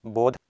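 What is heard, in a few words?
"bhodh", Proto-Indo-European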